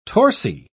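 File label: "torsi", English, British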